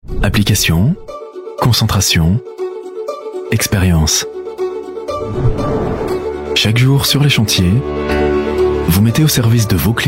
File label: french voice over male commercial